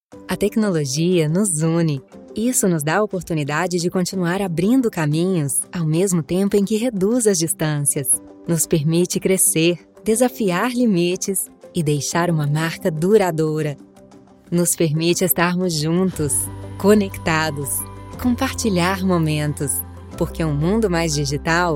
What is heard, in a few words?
portuguese brazilian corporate videos